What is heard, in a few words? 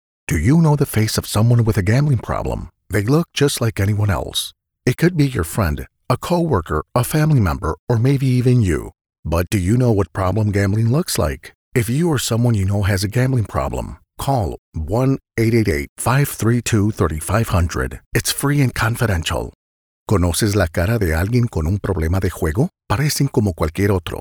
PSA